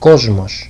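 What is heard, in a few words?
"kosmow", Greek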